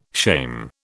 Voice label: "shame", British